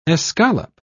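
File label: "escallop", British English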